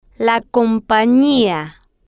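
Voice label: "compania", Spanish, female, slow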